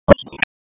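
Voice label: Police Spec Ops Tac, radio; Raleigh; p25; wake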